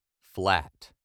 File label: flat, British English pronunciation